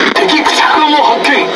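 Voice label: Speech Detection Enemy Destroyer, Japanese; World Of Warships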